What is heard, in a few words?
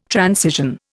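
"transition", Indian English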